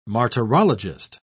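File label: "martyrologist", British English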